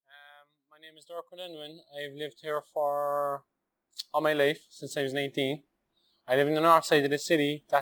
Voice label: Cork Male 19 Bartender Intro; Ireland